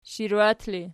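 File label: shirwat ergative, Archi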